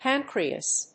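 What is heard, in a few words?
"pancreas", English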